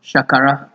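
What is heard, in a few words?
"shakara", Nigerian Pidgin, male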